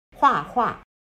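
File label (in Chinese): "画画", Chinese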